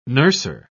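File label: "nurser", English, British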